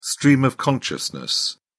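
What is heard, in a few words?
"stream of consciousness", British English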